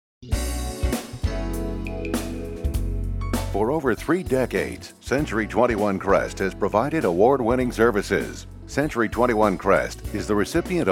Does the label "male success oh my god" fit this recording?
No